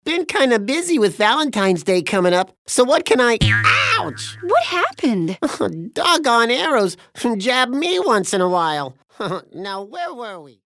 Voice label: cartoon characters

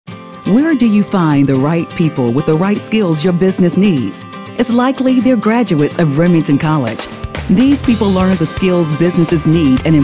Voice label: friendly

